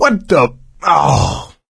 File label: reaction negative, survivor voice; Left 4 Dead 2; coach